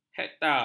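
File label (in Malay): "hektar", Malay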